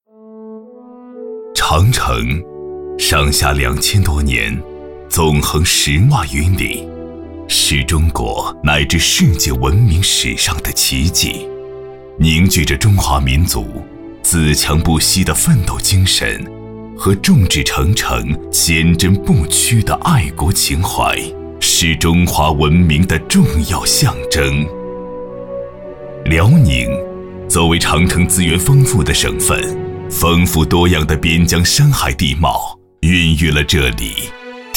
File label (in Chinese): 男 长城国家文化公园